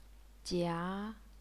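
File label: "jia", Chinese, second tone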